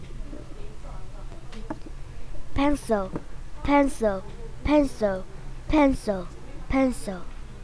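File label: "pencil", spoken word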